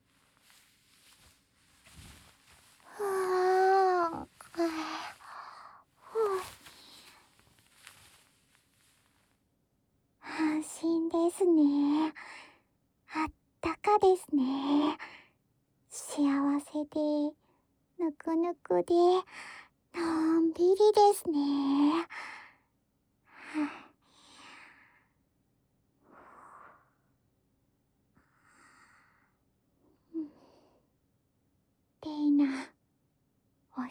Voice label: のんびりおやすみタイム; 蓄音レヱル ～ れいな～

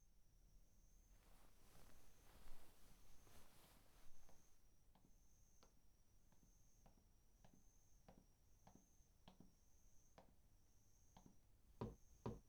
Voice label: 体をマッサージ, ASMR; メイドさんはおとこの娘 梅雨の夜(フォーリーサウンド)

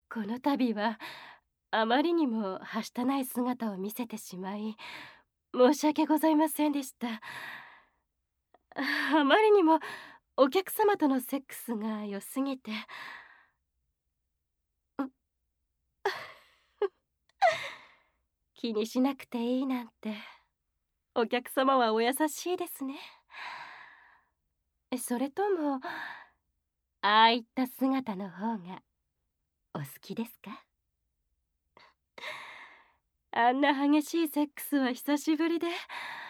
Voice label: 添い寝でおやすみなさい, ASMR; SEあり

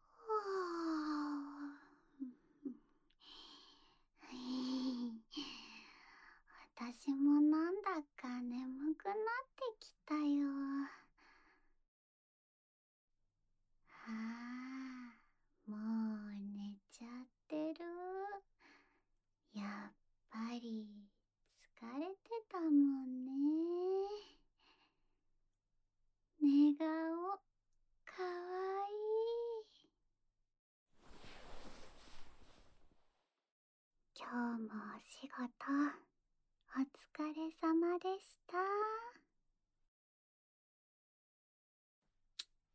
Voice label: 添い寝, ASMR; いっしょぐらし 〜ゆるふわ彼女編〜

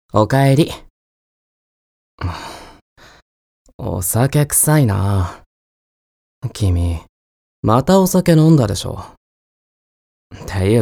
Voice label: voice 爽やか

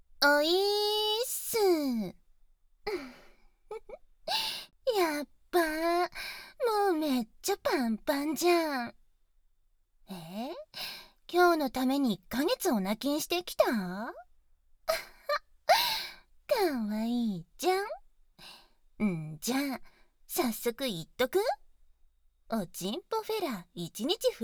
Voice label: チン嗅ぎと裏筋舐め上げ 語り掛け, ASMR; ギャルフェラッ!!学校は援交ギャル風俗店ッ!!

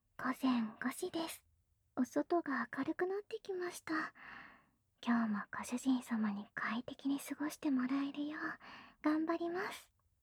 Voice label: 時報ボイス 午前5時です; 好感MAX従順奴隷メイド~甘トロ媚び媚び奉仕~

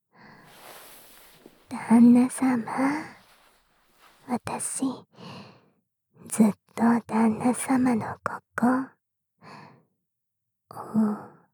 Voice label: 囁き甘々~挿入焦らし前戯（囁きブースト 効果音大きめ）; 隠れ宿 夢見亭 乃々佳; 和風癒しASMR

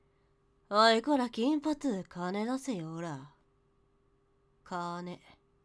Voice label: かつあげされる ヤンキー; ヤンキーのあさん

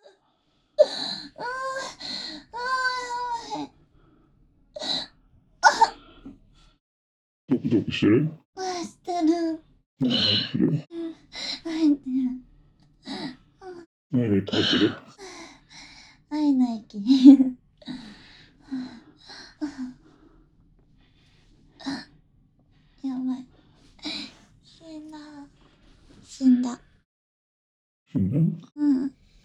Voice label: ピロートーク; SuperASMR; 声優志望のトー横キッズに収録の仕事のテイで生エッチASMR収録しちゃいました。

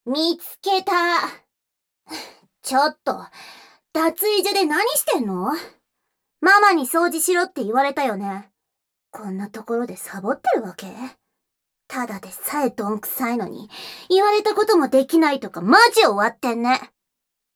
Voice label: ボイスオンリー, ASMR; パンツを拾ったところがバレる

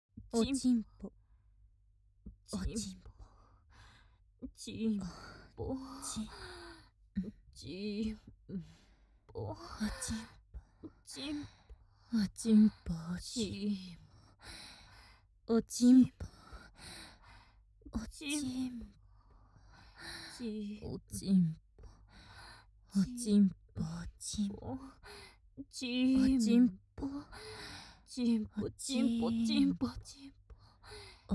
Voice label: おちんぽ淫語 OL×痴女, ASMR; オチンポおねだり～即ヌキ特化チン媚びバイノーラル～

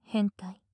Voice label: "変態" 近 右, ASMR

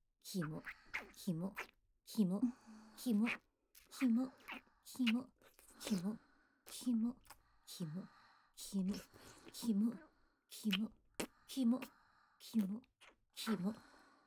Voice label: キモ連呼 x 吐息 x フェラ

